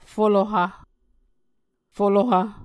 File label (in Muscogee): "foloha", Muscogee